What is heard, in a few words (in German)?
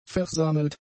"versammelt", german; adjectives